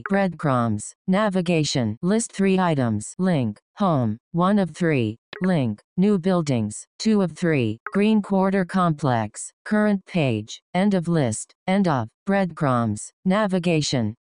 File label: breadcrumbs screenreader accessible